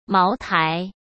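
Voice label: "茅台", Mandarin Chinese; chinese-flashcards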